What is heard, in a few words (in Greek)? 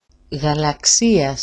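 "galaksias", Greek; γαλαξίας; [γala'ksias]; galaxy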